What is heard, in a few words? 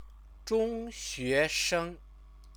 "zhongxuesheng", Chinese